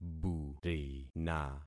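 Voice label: "burina", concatenated syllables; Affixing experiment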